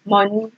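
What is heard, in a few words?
"money", Nigerian Pidgin, female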